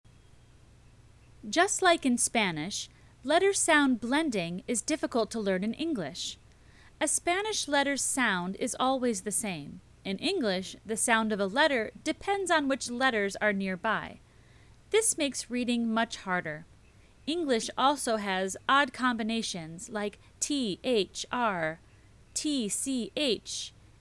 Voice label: Latina Bilingualism Letter Sound Blending; for latina mothers